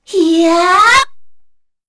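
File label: Vox Casting, Korean; Kara; kingsraid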